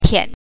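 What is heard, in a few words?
"tian", Mandarin Chinese syllable, neutral tone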